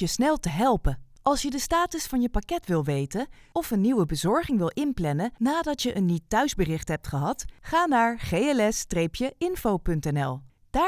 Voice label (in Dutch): WELKOM BIJ IVR; GLS